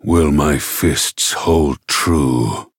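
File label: encounter fear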